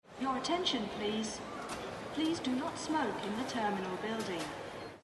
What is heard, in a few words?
airport announcement sfx